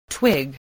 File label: "twig", English spelling voice